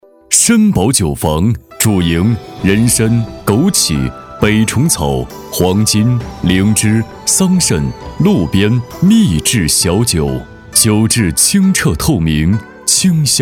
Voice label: 广告 大气浑厚韵味; 参宝酒坊

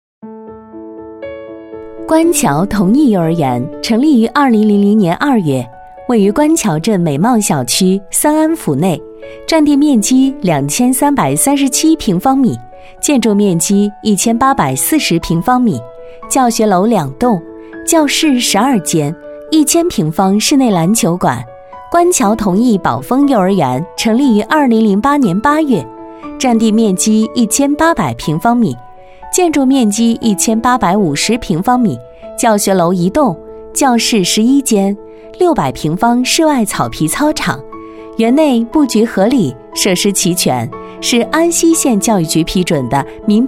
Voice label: 专题 亲切温柔清新; 幼儿园学校

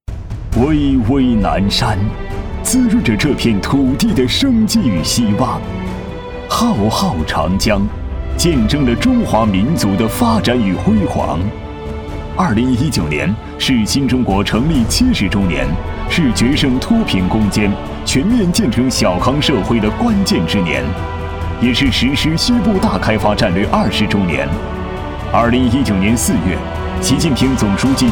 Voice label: 专题 大气浑厚 医疗外科宣传

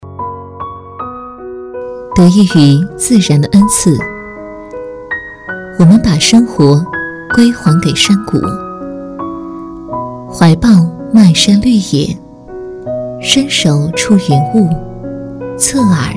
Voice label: 旁白 成熟音

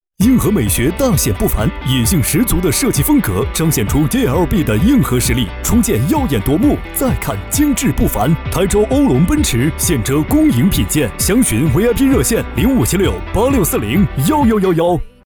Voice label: 广告 电台 稳重 激情; 奔驰

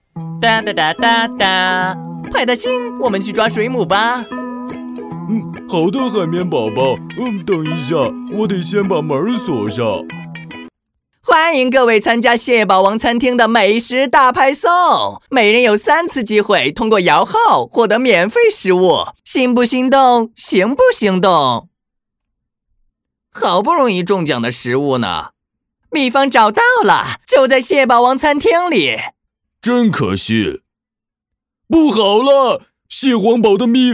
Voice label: 模仿; 海绵宝宝和派大星模仿样音

